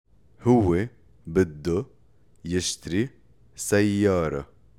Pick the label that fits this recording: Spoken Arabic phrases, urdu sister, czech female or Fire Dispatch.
Spoken Arabic phrases